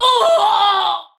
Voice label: Pain Ranged, Battle Barks; Tau; Tau Dax